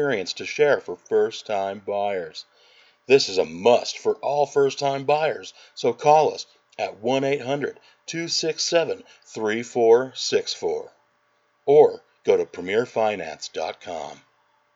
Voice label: Finance Commercial; Premier